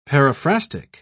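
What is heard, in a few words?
"paraphrastic", British English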